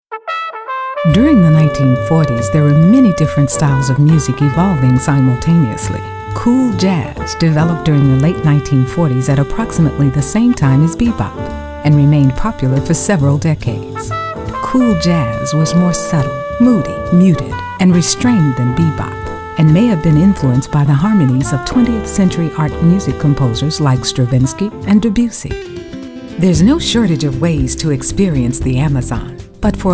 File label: Narration